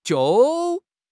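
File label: "jiu4", Mandarin Chinese; HSK3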